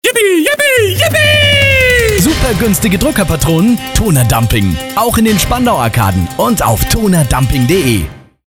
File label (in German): spot; tonerdumping spandau